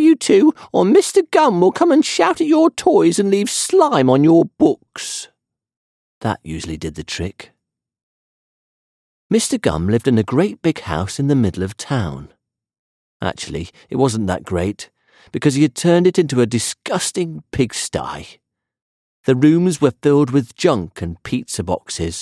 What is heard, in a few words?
Children s Audiobook Example